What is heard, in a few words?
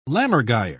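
"lammergeier", British English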